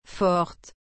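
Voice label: "forte", French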